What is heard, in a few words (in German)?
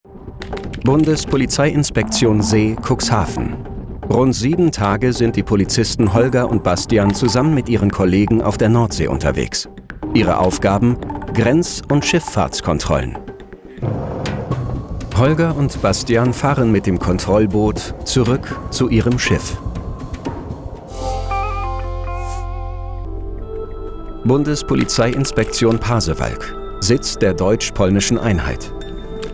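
Kommentar, Männer; Mein Revier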